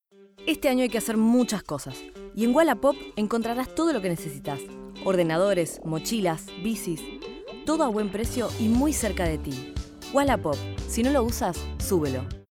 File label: female Argentinian tv comercial; ideasonora